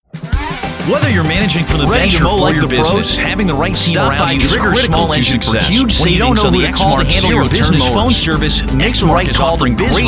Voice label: voiceover